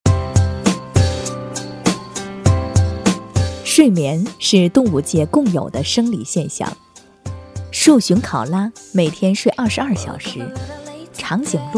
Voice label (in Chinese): 国语 温柔 亲切, 宣传; 水星床垫